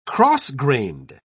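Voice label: "cross-grained", British English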